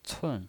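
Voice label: "cun", Mandarin Chinese pinyin voice, fourth tone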